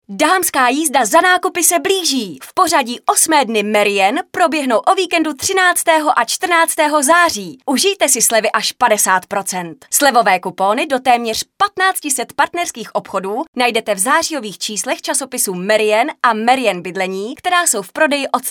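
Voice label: female Czech commercial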